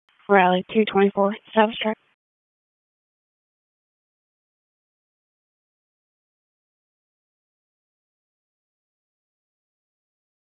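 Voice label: Raleigh Police Northeast District Dispatch, p25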